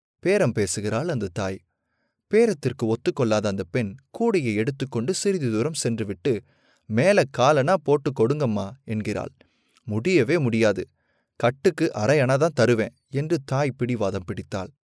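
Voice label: Tamil Sri Lanka male voice over, character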